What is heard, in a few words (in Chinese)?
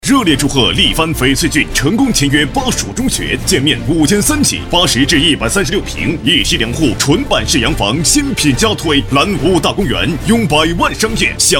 广告 地产15秒男 浑厚激情; 力帆翡翠郡